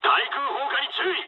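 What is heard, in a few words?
damaged aa mood low, speech japanese; War Thunder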